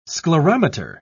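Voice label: "sclerometer", British English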